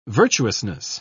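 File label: "virtuousness", English, British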